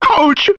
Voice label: ouch cartoon; ooh ahh; ow ouch

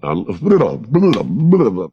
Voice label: a bulalbuh bullala bula, Voice gibbrish

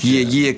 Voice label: "yeah yeah", voice

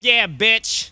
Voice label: "yeah bitch", voice

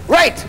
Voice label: "right" male

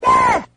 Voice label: "yeah", 8 bit arcade guy

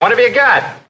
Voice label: what have you got, male; questions

